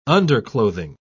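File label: "underclothing", British English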